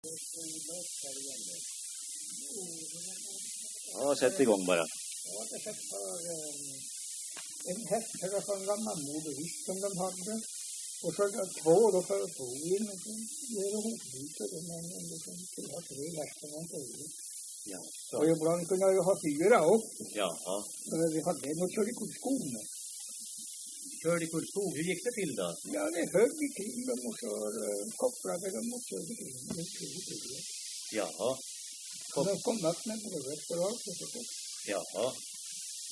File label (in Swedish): dialekter; Folkminnen